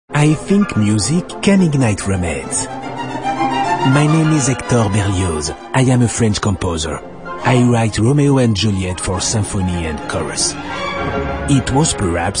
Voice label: ENGLISH

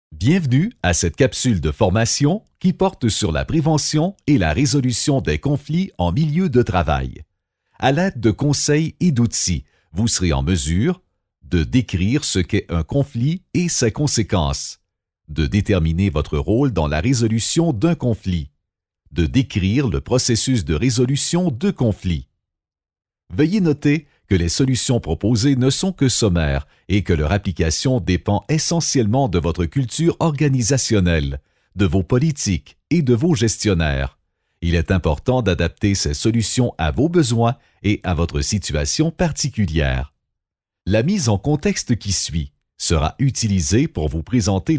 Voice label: E learning

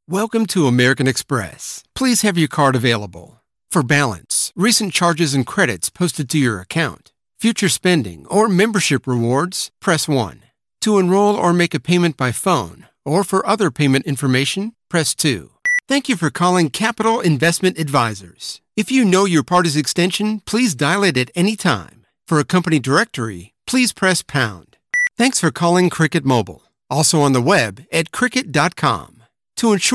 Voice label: Telephony

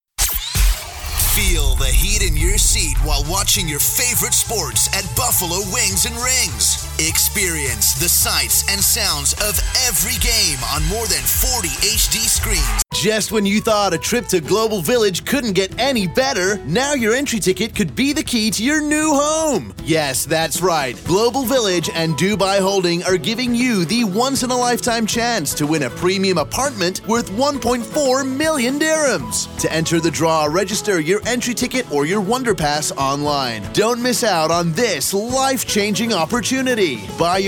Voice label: American Radio

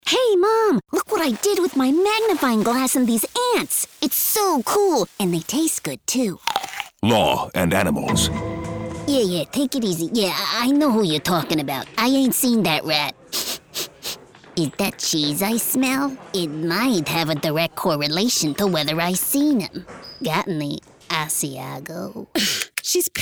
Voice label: Character

